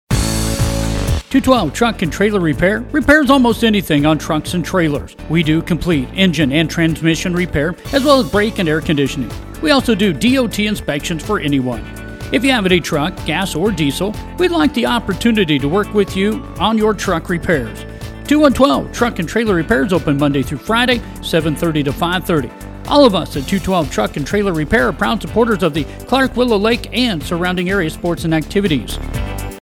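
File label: Truck and Trailer Repair, commercial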